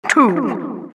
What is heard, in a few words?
announcer begins 2sec